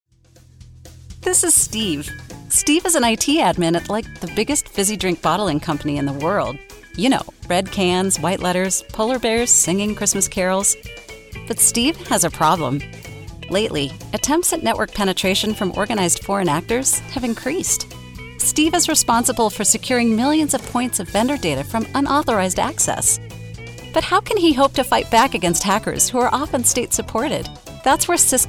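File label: American English explainer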